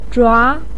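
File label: "zhua" second tone, Mandarin Chinese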